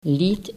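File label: "leed", Dutch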